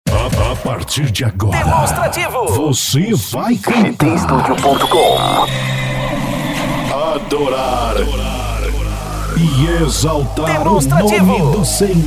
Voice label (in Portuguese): Vinheta de Abertura Gospel para Cantor e Radio